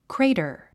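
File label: "crater", English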